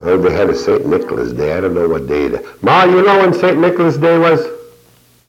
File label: Personal history with male, Chisholm Minnesota, american english dialect recordings